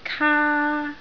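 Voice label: "kaa1", Cantonese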